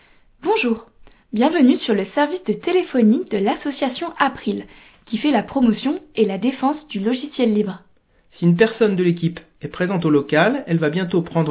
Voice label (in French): Message repondeur